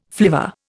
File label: "flivver", Indian